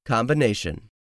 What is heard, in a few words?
"COMBINATION", english; 3000 words